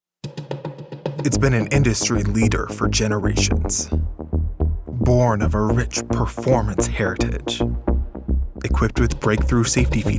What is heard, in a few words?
Automotive Classy Cool, voiceover